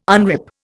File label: "unrip", Indian